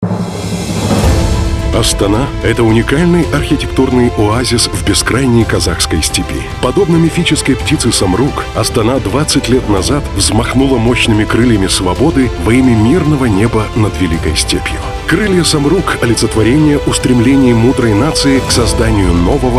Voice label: ru vo radio mix; Astana